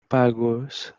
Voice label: "phagos" Doric Greek

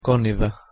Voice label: "koniDa", Modern Greek

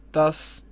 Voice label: "das" Armenian

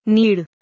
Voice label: "nir", Hindi

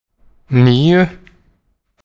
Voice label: "níu", Icelandic

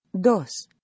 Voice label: "dos" American Spanish

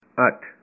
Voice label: Punjabi, eight

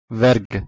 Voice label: "verg", Zazaki

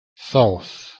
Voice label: "sauss" Latvian

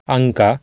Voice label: "anka", Sanskrit

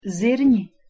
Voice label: "zirni" Latvian

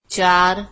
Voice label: "4", Marathi

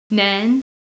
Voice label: "nane" Manx, 1

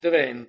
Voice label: "deven" Franco Provencal, 9

